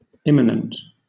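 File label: "immanent", British English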